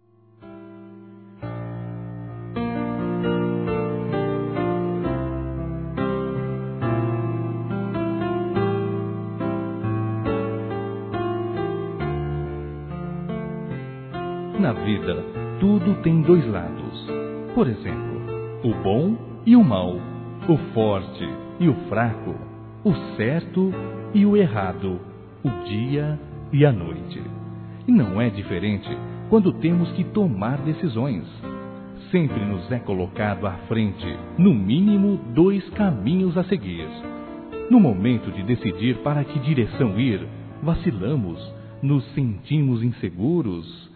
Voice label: PELA INDECISÃO, Desculpas, masc; Telemensagens 2017

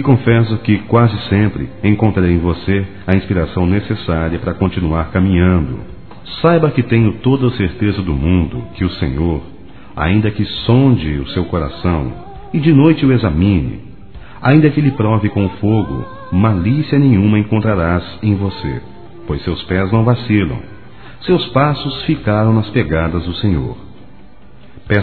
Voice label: Evangélico Aniversário Irmão Masculino

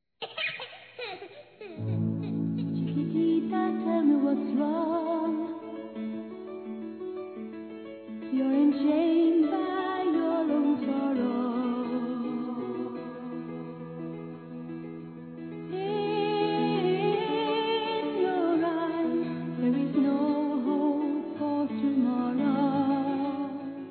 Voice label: Para Criança Geral Masculino; Telemensagens 2017; Aniversários; Aniversário Infantil

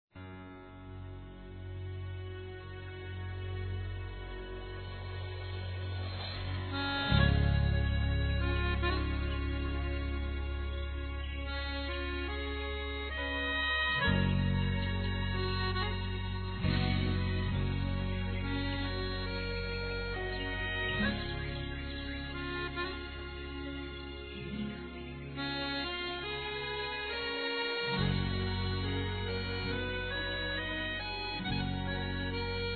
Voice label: O Poder da Fé Feminino; Telemensagens; Telemensagens 2017

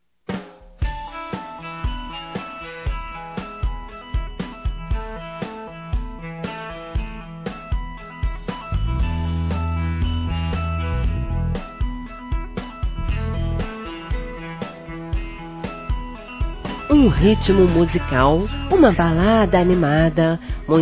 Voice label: Filho (a) Adolescente Feminino Masculino; Telemensagens 2017; Aniversários; Aniversário Infantil

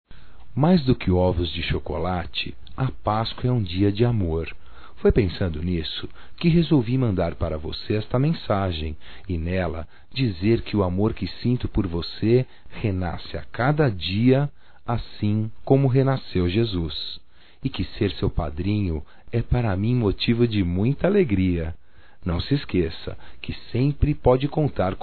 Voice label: PASCOA ROMANTICA, Só Voz, masc; Telemensagens; Telemensagens 2017

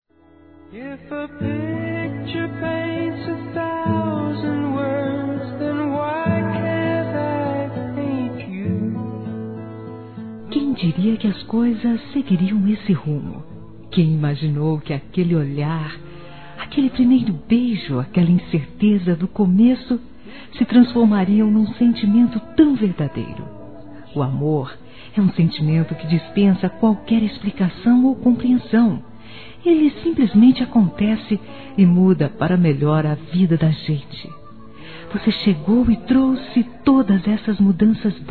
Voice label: Nosso Noivado Feminino; Telemensagens; Parabenização

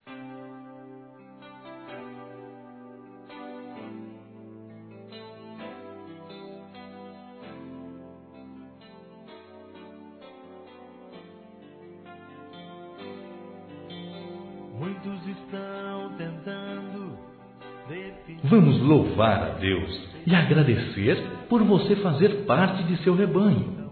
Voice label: Otimismo Para Amigo Masculino, Telemensagens

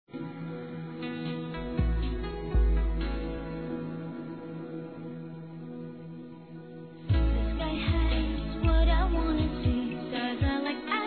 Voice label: ANIV. ROMANTICO F

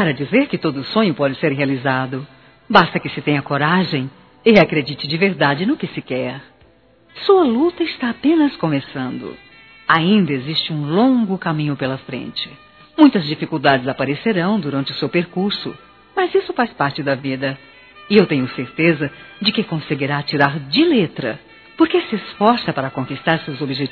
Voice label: Formatura 1º Grau Feminino; Telemensagens; Formaturas; Telemensagens 2017

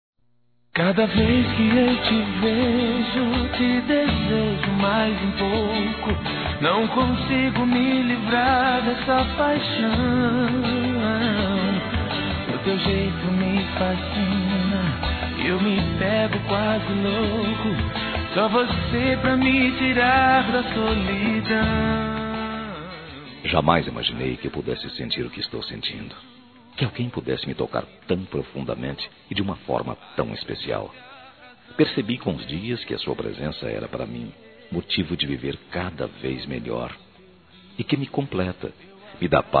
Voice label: Aniversário Sertanejo Declaração Amor Masculino; ANIVERSARIO romantico

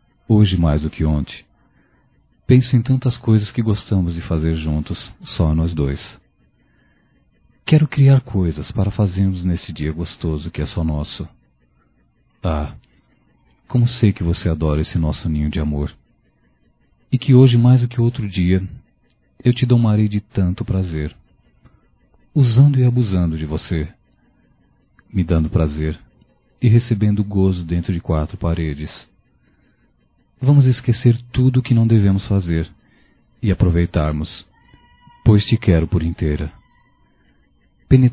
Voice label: Erótica Leve Masculino, Só Voz; Telemensagens; Telemensagens 2017

